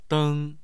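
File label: "deng1", Mandarin Chinese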